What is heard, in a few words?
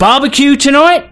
"Hello" male